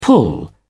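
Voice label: "pull together", British English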